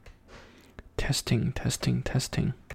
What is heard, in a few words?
before reverb